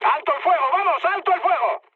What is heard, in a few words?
player friendly fire, mood med, speech; War Thunder